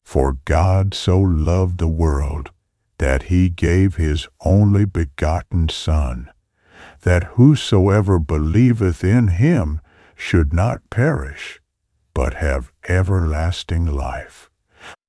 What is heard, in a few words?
earlier finetune john 3 16, bible narration; xtts v2